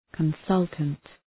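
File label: "consultant", English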